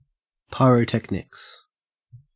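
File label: "pyrotechnics", Australian English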